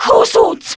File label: Player Spotted, Grineer Female